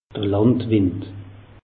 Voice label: "landwind", alsace; meteo

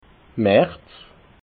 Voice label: "marz", alsace

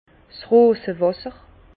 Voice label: "rose wasser", Bas Rhin; vin; eau de rose

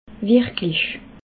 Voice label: "wirklich", alsace

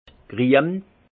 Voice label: "grien", alsace; vin; vert

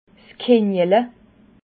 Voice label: "kinggele", alsace

